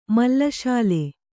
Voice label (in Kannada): "ಮಲ್ಲಶಾಲೆ", Kannada